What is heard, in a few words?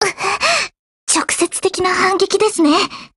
BREAK JP; GF Alarm